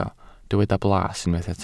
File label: "blas", welsh